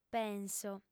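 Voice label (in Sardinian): "penso", female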